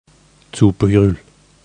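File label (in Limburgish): "Tsoepejruul", kerkraads dialect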